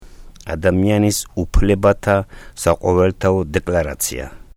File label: Georgian title